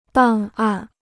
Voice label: "档案", Chinese

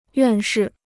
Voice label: "院士", Chinese

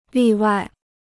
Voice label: "例外", Chinese

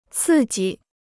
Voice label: "刺激", Chinese

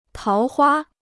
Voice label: "桃花", Chinese

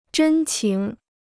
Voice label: "真情", Chinese

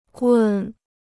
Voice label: "棍", Chinese

